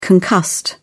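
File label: "concussed", British English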